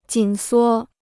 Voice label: "紧缩", Chinese

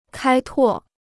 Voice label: "开拓", Chinese

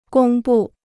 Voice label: "公布", Chinese

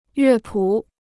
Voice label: "乐谱", Chinese

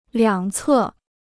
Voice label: "两侧", Chinese

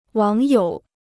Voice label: "网友", Chinese

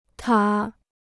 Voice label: "塔", Chinese

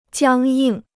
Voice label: "僵硬", Chinese

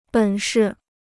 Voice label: "本事", Chinese

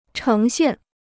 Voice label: "呈现", Chinese

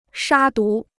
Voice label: "杀毒", Chinese

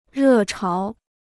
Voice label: "热潮", Chinese

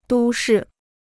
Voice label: "都市", Chinese

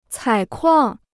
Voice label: "采矿", Chinese

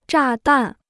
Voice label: "炸弹", Chinese

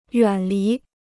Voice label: "远离", Chinese

